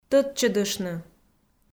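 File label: "тӧдчӧдыштны", Komi, female; audio dict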